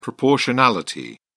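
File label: "proportionality", British English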